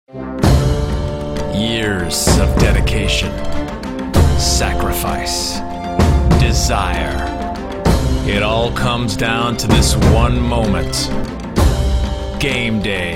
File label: Spot